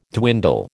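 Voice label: "dwindle", American English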